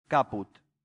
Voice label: "caput", latin; santillana

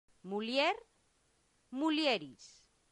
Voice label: "mulier mulieris", latin; santillana

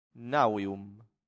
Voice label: "navium", latin; santillana

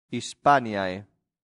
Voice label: "hispaniae", latin; santillana

